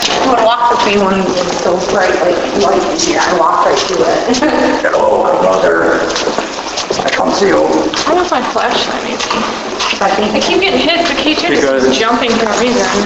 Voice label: deep voice saying hello my mother which one is you; no response; Waverly Hills; EVPS; Spirit Chasers of the Unknown